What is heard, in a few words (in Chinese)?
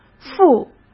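"富", Mandarin Chinese; hsk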